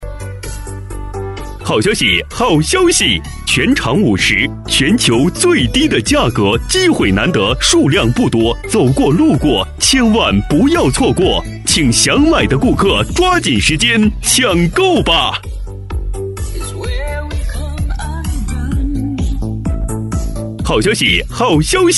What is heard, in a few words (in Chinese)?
促销 全球最低价